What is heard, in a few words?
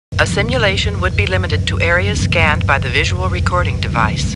Computer Voice; Star Trek; StarTrekLCAR